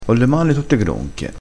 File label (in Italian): "gronchio", crespinese